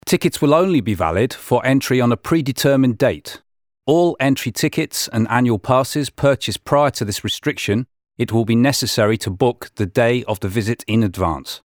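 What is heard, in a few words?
English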